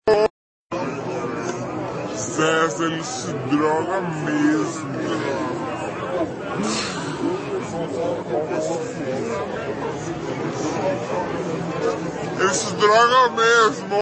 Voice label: pitch shifted